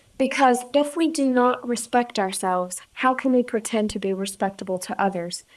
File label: Voice Acting, es, F0